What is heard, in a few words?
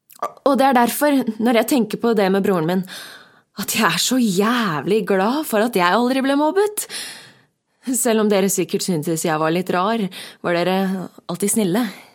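Norwegian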